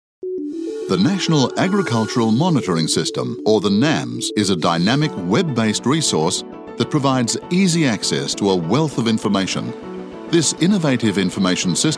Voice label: corporate; EM Voices